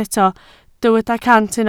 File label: "cant", Welsh